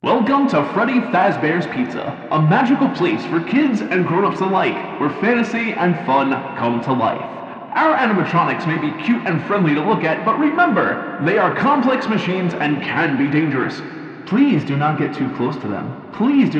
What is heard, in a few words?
Narrator